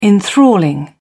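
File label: "enthralling", English, British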